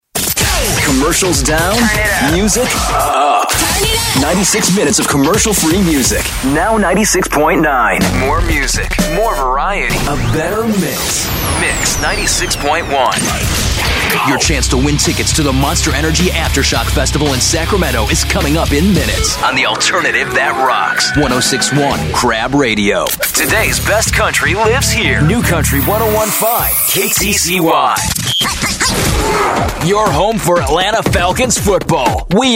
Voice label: Voice Talent Imaging